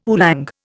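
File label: "oolong", Indian English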